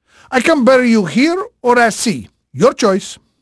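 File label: Portuguese male, voice; Pirates Of The Caribbean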